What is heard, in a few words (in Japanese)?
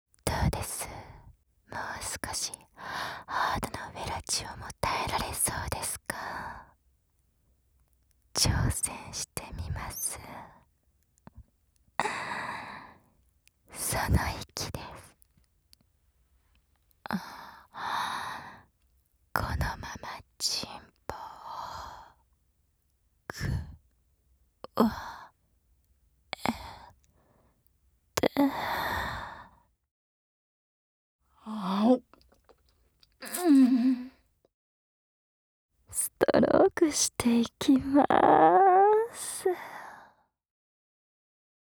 左右交互囁き; フェラチオ